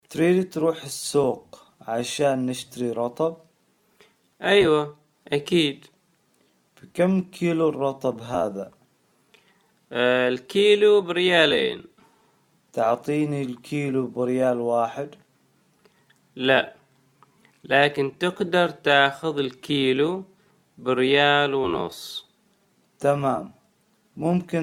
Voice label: omani arabic slow